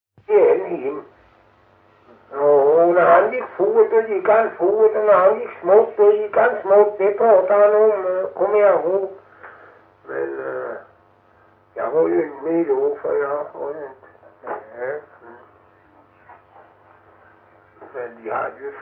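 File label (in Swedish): Hulterstad Öland, dialekter; Folkminnen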